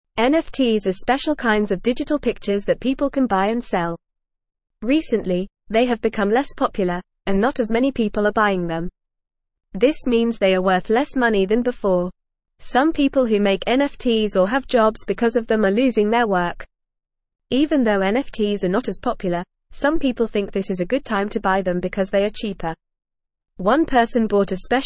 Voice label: English Lower Intermediate FAST Reading; Computer Pictures Called NFTs Not Worth Much